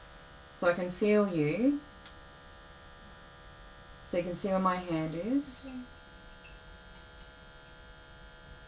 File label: EVP I can; private investigation pimpama; paranormal